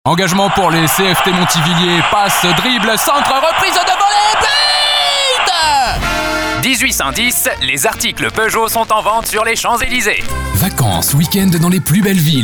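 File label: French Commercial; GoLocalise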